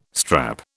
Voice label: "strap", British

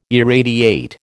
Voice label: "irradiate", American

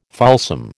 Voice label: "fulsome", American

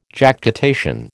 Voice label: "Jactitation", American

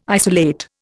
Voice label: "isolate", Indian

